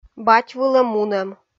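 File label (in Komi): "бать вылӧ мунӧм", female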